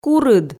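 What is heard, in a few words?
"курыд", Komi, female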